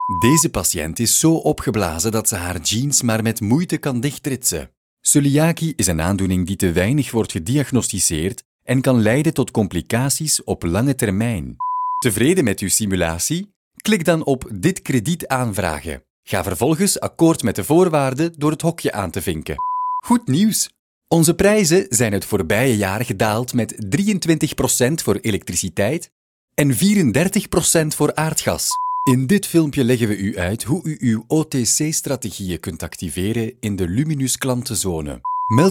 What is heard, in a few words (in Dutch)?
Informatieve